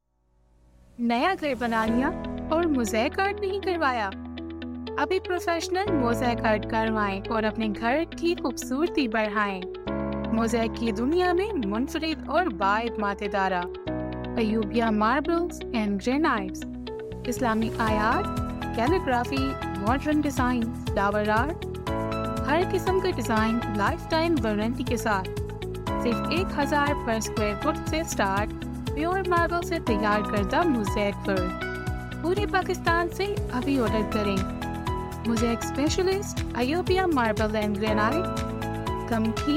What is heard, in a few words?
Urdu